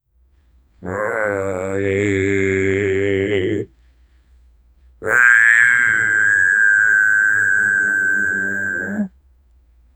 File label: a deep voice of a, mythical creatures